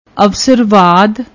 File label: "awasarvaad", Punjabi; ਅਵਸਰਵਾਦ